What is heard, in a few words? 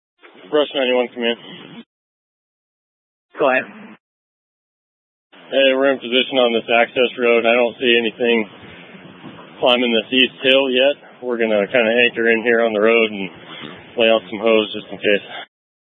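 Incident Tac 1, Scanner audio recording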